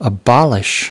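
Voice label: "abolish", English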